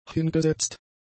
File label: "hingesetzt", German; adjectives